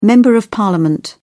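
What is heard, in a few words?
"member of parliament", British English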